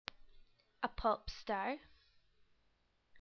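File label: "a pop star", English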